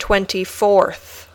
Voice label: "twenty fourth", English